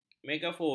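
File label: "megafon", Malay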